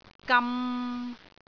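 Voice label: "gam3", Cantonese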